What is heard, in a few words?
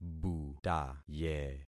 "butaye", concat syllables; Affixing experiment